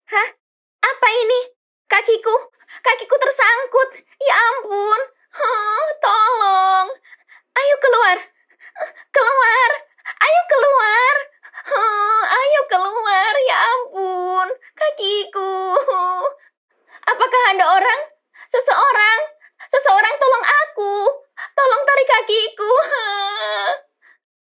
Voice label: KARAKTER INDONESIA